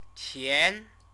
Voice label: "qian", Chinese

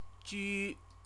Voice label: "ju", Chinese

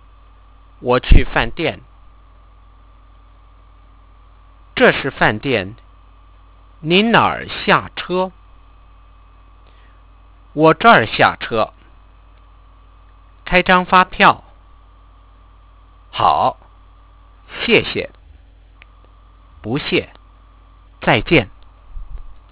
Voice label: Taking taxi conv

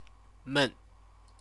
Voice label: "men", Chinese

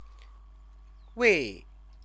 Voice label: "wei", Chinese; 位; [measure word for people]

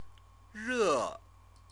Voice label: "re", Chinese

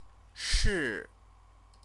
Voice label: "shi", Chinese

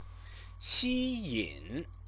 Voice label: "xiyin", Chinese

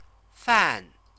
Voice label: "fan", Chinese

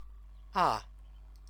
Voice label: "a", Chinese

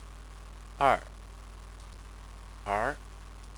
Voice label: "er", Chinese; CTCFL teaches Chinese as a foreign language to students at the University of Oxford

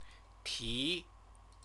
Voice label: "ti", Chinese

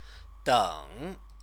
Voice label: "deng", Chinese; Chinese lessons

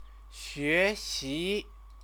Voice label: "xuexi", Chinese

